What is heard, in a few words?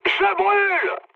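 French on fire mood high; War Thunder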